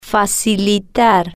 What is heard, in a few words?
"facilitar", spanish